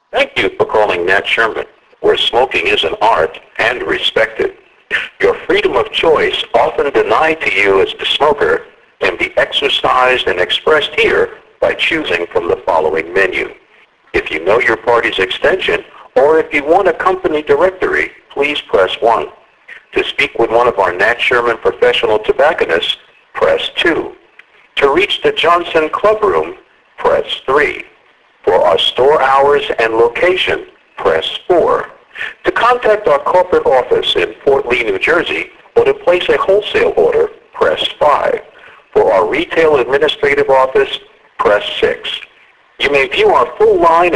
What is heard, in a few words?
phone message